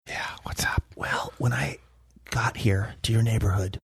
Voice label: whispering yeah whats up

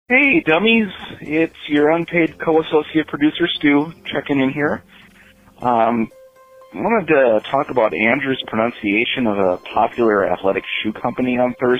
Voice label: voicemail message

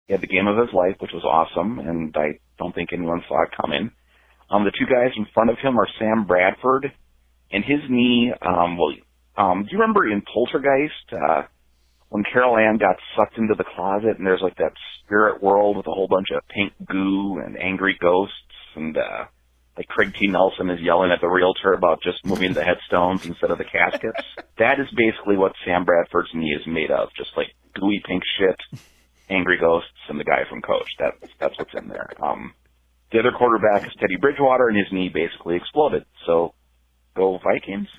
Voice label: voicemail message

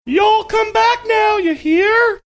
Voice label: saying y'all come back now ya hear funny

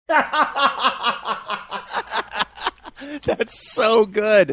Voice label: laughing saying thats so good

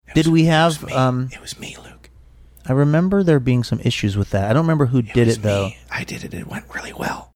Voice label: whispering it was me i did it

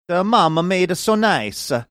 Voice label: saying a mama made a so nice a faux italian

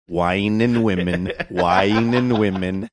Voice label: wine and women backmasking

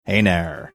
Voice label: Heiner funny

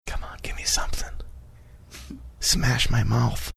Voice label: whispering

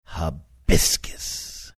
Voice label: saying hibiscus funny drawn out

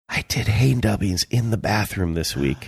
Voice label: whispering

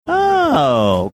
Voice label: drawn out "ohh"

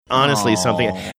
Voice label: awwwww

